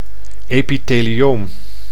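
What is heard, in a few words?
"epithelioom", Dutch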